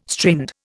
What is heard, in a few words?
"strained", Indian